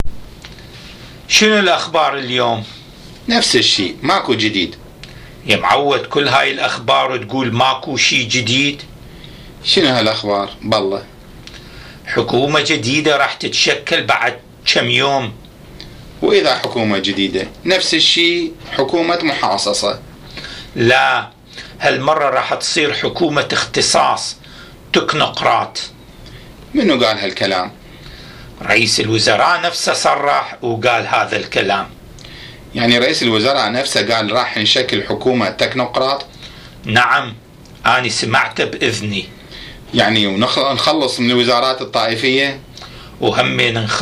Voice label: Dialogue about Politics, Iraqi Dialect